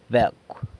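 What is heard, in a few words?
"becw", San Lucas Quiaviní Zapotec; dog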